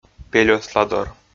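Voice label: "пельӧсладор", Komi, male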